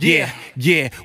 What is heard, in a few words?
"yeah yeah", voice; ooh ahh